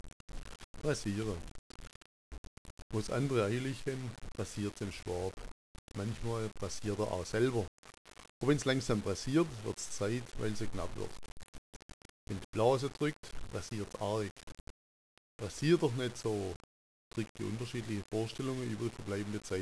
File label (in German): "pressant", schwaebisch